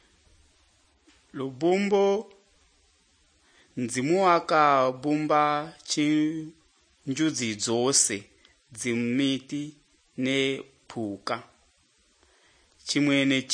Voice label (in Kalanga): good news kalanga lilima, drama